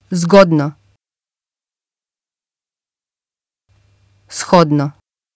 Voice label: "zgodno", bosnisch; uitspraak; leuk

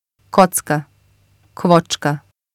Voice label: kocka, bosnisch; uitspraak; blokje

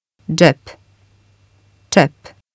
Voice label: dzep, bosnisch; uitspraak; zak (in kleding)

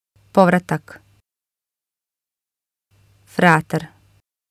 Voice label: "povratak", bosnisch; uitspraak; terugkeer

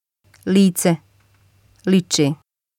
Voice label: lice, bosnisch; uitspraak; gezicht

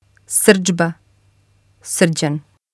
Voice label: srdzba, bosnisch; uitspraak; woede

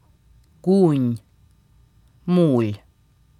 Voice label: gunj, kroatisch; boerenjasje

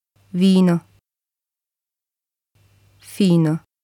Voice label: "vino", bosnisch; uitspraak; wijn

